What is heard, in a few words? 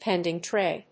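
"pending tray", English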